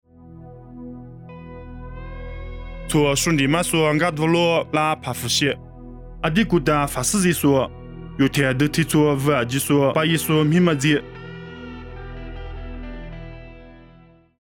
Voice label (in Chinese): 凉山彝语; 地中海帕弗